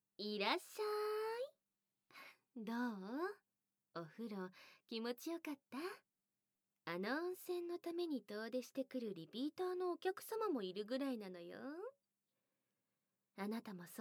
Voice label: しっとり耳かき SEなし; 猫のお宿で八雲のしっとり耳かき♪

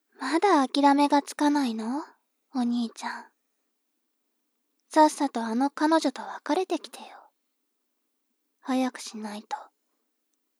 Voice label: 妹 台詞

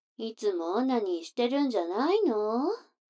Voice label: 女の子台詞, ASMR

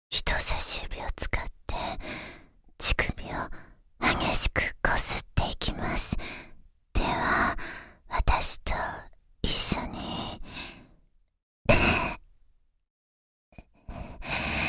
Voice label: ナローラブB(ささやき); 実技パーツ（エクササイズ）; 第１回講座より（ラブ&タップ）

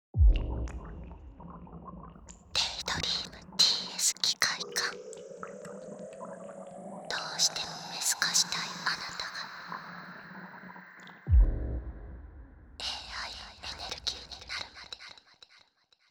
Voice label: タイトルコール; デイドリームTS機械姦

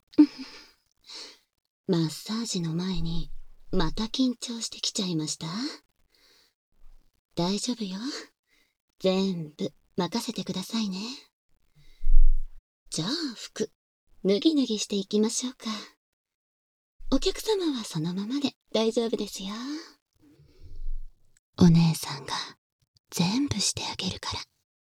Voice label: マッサージの前に, バイノーラルマイク収録 効果音あり; 96kHz

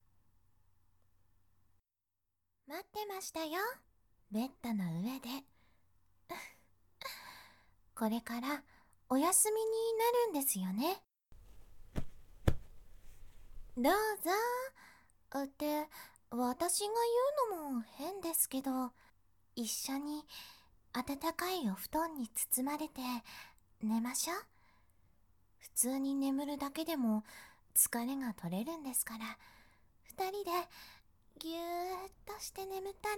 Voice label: 添い寝

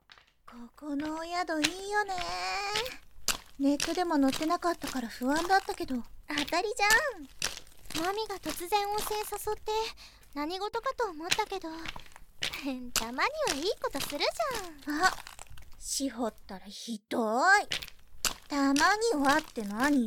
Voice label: 女性客談笑; 背後から抱きしめられて・・・; ～妖艶の湯～ねっとり囁きながら犯してくる幽霊少女編; M性感

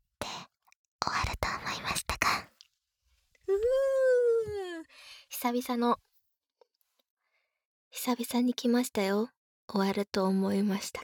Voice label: フリートーク, ASMR; スイーツカノジョ -いちご大福の初恋

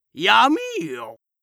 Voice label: 闇よ！, 戦闘ボイス 老人・高音

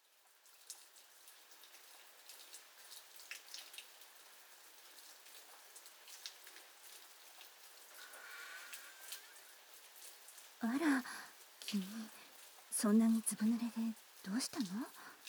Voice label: イントロダクション 視聴者サイド, ASMR

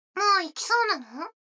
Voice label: 女の子 台詞, ASMR

